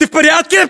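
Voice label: status check, battlechatter, Russian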